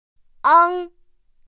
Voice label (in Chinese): "āng", pinyin; pinyin2; pinyinji